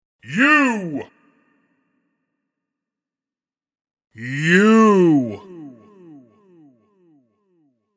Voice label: You Spoken, The word 'You' spoken in male voice x 2; Exclamations